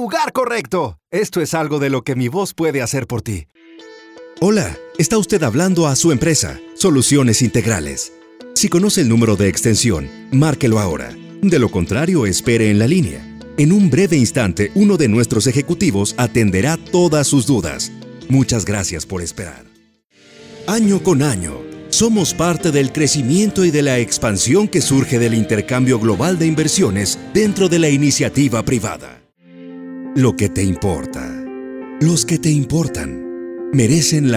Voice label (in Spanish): locutor mexicano; mexico